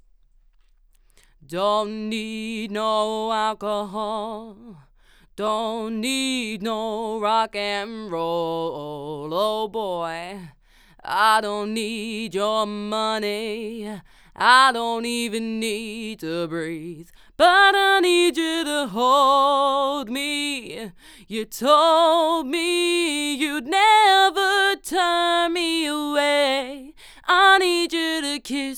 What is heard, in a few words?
Vox SE Z3300A Card; Vox Shoot